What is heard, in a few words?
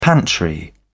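"pantry", British English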